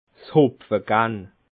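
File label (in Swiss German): "hopfe", Alsatian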